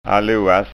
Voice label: "alloas", skanska ord

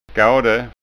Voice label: "skade", skanska ord

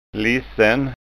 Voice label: "lissen", skanskaord

